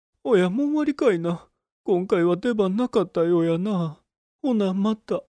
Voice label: foreigner; 関西弁(日本語)